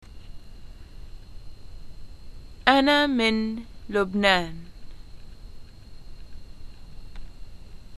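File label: introductions i am from, Lebanese arabic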